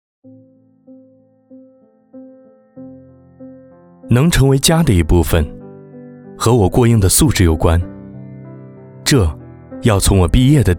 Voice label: 走心旁白 产品自述 真实走心 青年

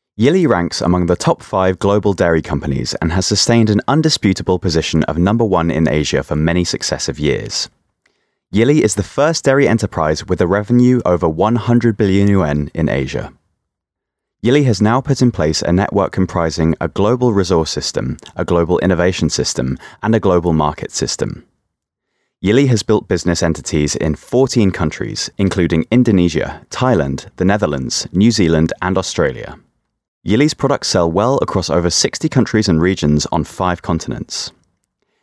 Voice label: 外籍 英 常规企宣; 伊利常规企宣

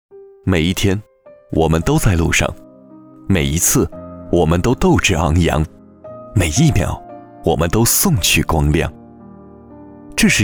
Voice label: 专题 叙述风格

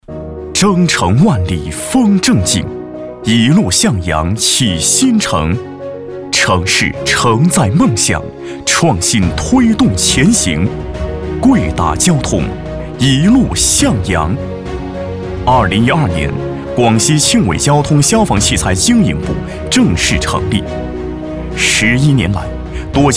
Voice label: 专题 大气激情 企业宣传片

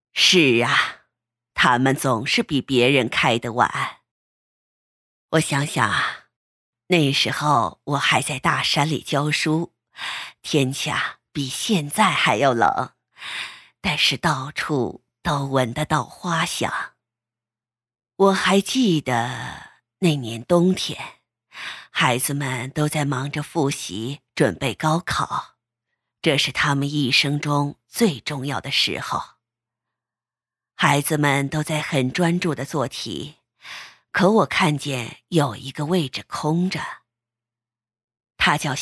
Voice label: 角色 老年人

